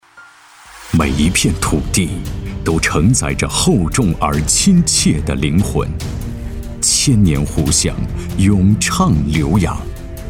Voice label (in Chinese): 男 大气房地产; 金湖湾